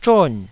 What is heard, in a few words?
"zhon4", Taiwanese syllable